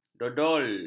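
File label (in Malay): "dogol", Malay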